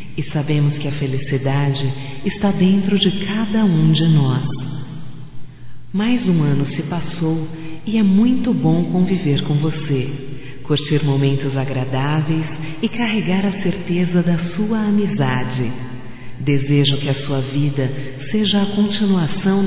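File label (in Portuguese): voz - Aniversário amigo especial, fem; Telemensagens 2017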